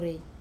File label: "rei", land, Wamesa